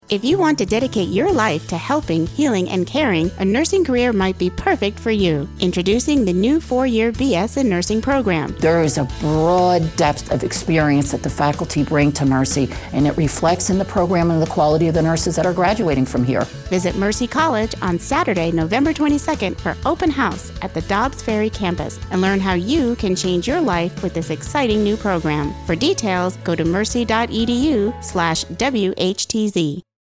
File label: Female; Mercy College Open House